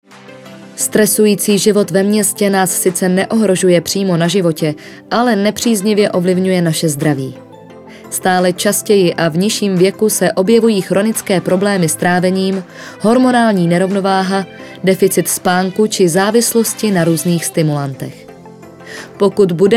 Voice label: voiceover